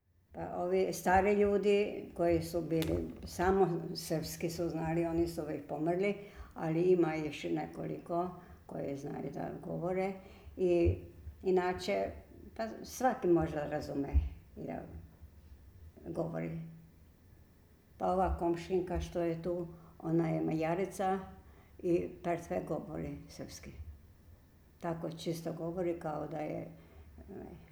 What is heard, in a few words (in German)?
hoerprobe bosnisch kroatisch serbisch, Bosnisch/Kroatisch/Serbisch; Informationsseite zu den Bosnisch/Kroatisch/Serbisch-Sprachkursen bei SprachKult Jena